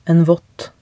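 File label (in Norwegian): "en vott", Norwegian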